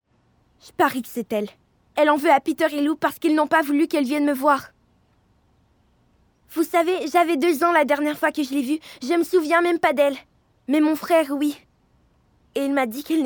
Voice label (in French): doublage; FR 2026 - Doublage